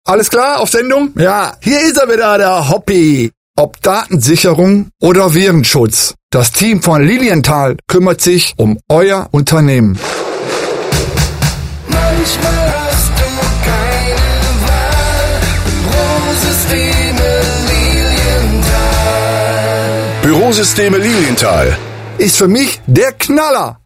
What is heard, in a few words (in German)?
Spot Virenschutz